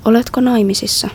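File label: Finnish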